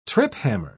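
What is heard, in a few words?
"triphammer", British English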